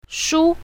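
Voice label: "shu1", pinyin, south, female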